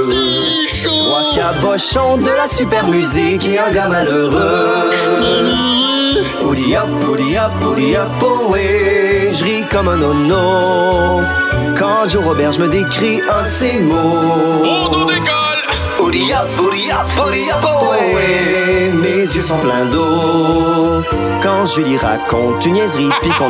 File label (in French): radio; ritournelle